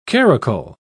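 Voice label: "caracul", English, British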